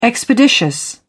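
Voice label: "expeditious", English, British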